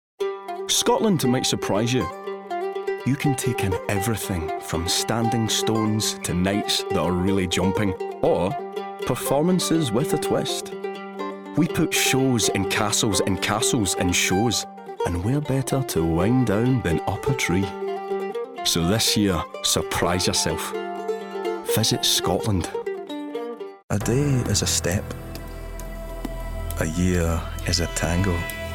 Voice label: Commercials, Mid-to-deep tone. Reassuring and rich Scots voice.; 20s-40s